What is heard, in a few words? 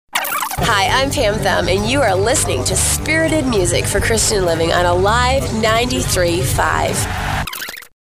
Artist Liner